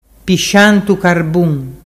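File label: "pisciantu carbun", Ligurian dialect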